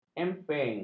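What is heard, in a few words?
"emping", Malay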